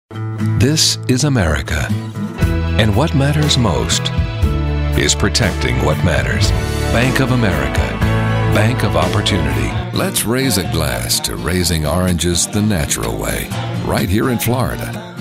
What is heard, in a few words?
Commercial